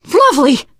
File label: nice job, teengirl